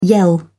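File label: "yell", British English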